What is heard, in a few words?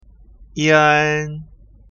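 "ian", pinyin; ภาษาจีนกลาง; สำเนียงปักกิ่ง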